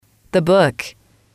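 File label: "the book", English, female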